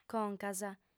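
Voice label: "concas", Sardinian, female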